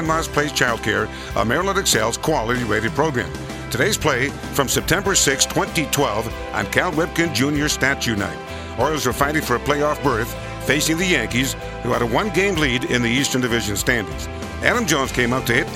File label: kids Call spot